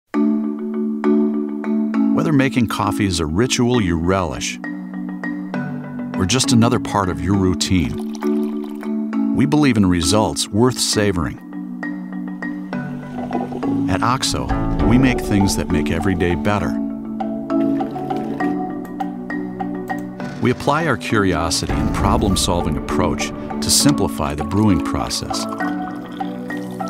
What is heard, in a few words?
Voice Over Friendly Happy